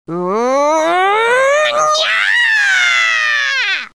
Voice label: long nyaaa; neco arc